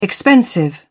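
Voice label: "expensive", English, British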